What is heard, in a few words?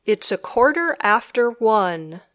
"It's a quarter after one", English; 1:15